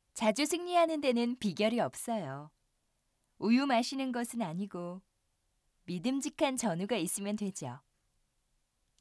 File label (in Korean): 감성이 풍부한 예쁜 무녀 친절한 20대